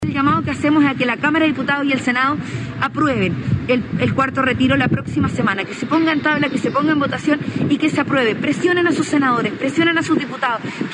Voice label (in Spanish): CUÑA; CARIOLA